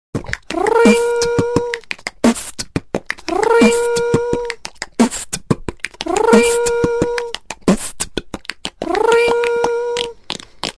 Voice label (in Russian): prikolnii golosov zvonok rrrin